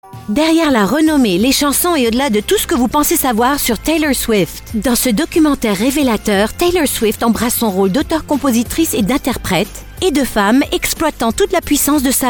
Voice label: French commercial; Francés Alemán; locutora franco-alemana